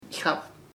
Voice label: small pequeno, chamacoco

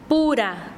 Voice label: "pluma", Quechua Chanka

